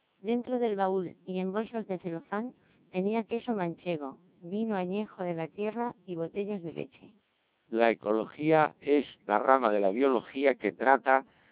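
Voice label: speech CODEC2 1600 bps, Spanish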